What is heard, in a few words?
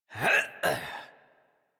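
horse mount adult, English; Warframe; Duviri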